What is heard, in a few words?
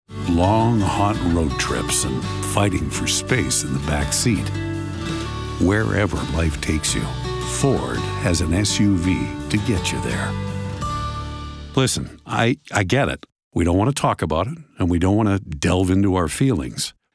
Commercial; Married With Mics